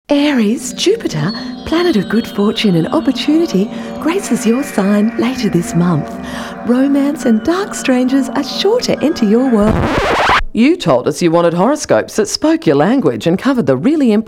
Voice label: Voice Over